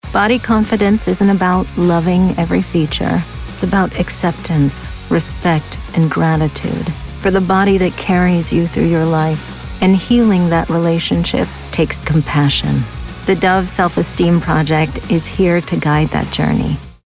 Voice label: Corporate Voiceover, Business Voice Over, Cool, Confid; Very Natural, Warm; Dove Self Esteem